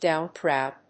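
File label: "Down throw", English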